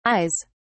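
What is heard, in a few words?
"ayes", British English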